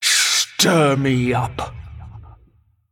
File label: Female Animated Cauldron Attack